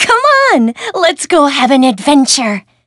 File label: touch, en; cookie run